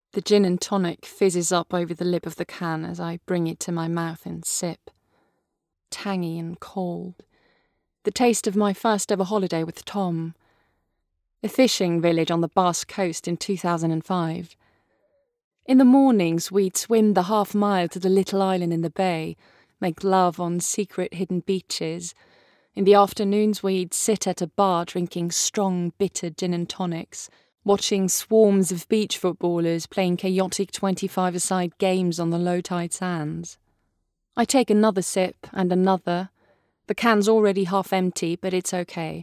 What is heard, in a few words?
Narrative English; Girl On The Train; LOFT VOICES